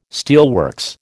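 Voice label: "steelworks", American English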